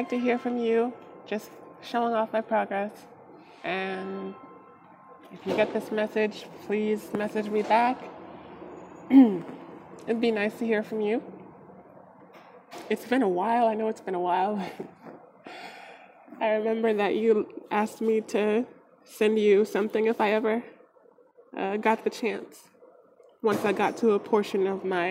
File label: voice feminization